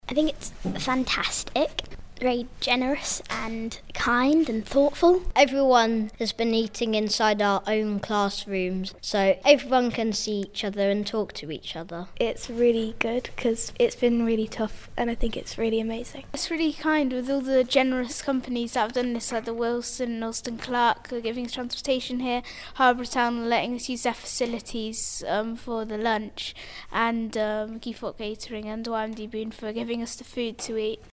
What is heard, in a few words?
kids vox